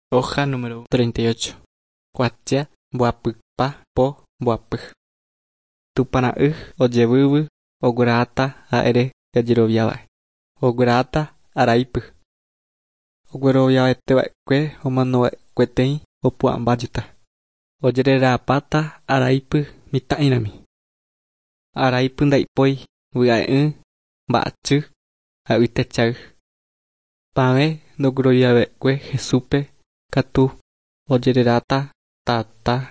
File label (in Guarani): guarani mbya; good news and words of life; bible